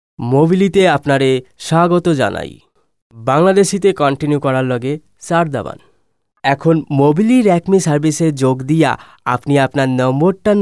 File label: bangladeshi male